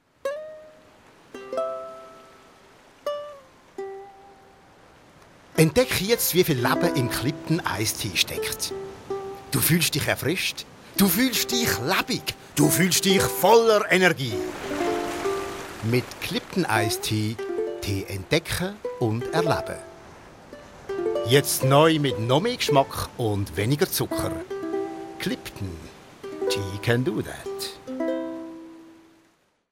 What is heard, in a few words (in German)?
Werbung; Clipton Ice Tea; CH